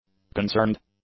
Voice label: "concerned", English; adjectives

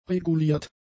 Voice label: "reguliert", German; adjectives

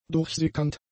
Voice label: "durchsickernd", german; adjectives

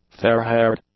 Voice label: "fair-haired", english; adjectives

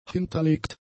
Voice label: "hinterlegt", German; adjectives

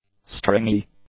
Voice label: "stringy", English; adjectives

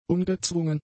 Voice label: "ungezwungen", German; adjectives

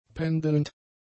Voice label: "pendelnd", German; adjectives

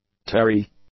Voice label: "tarry", English; adjectives

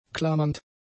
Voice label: "klammernd", German; adjectives

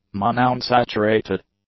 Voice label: "monounsaturated", English; adjectives

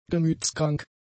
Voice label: "gemütskrank", German; adjectives

